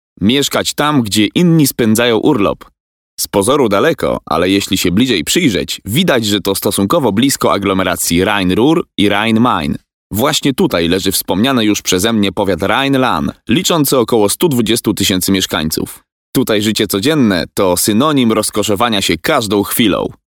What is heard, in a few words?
polish voiceover locutor polaco